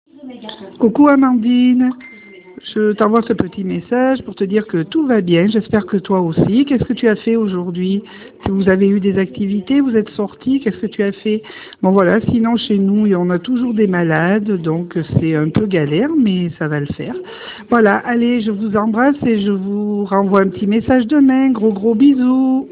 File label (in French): Message vocal